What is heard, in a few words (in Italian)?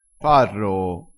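"parroo", dialettale crespinese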